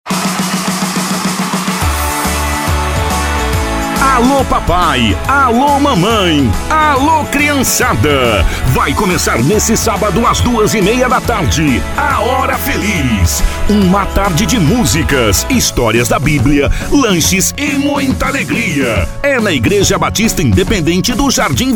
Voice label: Locutor, Igreja; Festa e historia da Biblia para as criancas

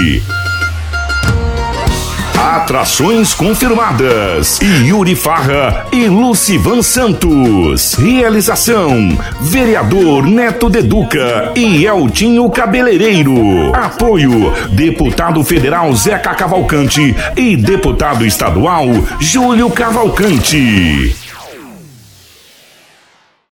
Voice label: Locutor Propaganda Festa de forro

